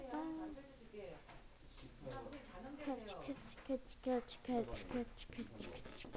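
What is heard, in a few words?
"hold", spoken word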